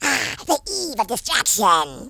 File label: grenade, Horror